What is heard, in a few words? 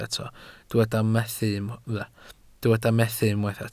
"methu", Welsh